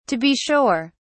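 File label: "to be sure", British English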